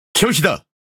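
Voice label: Japanese Speech Quick Commands No Way; World Of Warships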